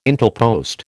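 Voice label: "Intelpost", American English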